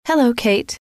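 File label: "hello", female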